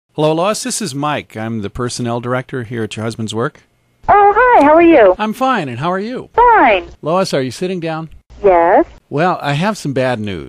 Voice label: Phone call gone bad